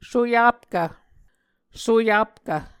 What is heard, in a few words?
"soyapkv", Muscogee